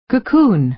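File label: "cocoon", American English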